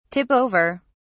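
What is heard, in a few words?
"tip over", British English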